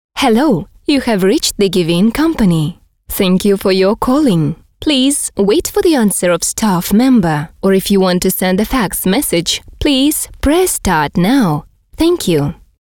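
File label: English, interactive voice response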